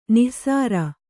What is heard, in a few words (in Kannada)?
"ನಾಸೀರ", Kannada